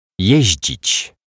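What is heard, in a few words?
"jezdzic", Polish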